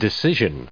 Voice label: "decisions", en us